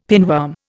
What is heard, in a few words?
"pinworm", Indian; Dictionary